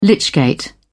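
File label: "lychgate", British English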